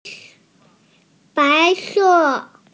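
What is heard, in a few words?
"white", Mandarin Chinese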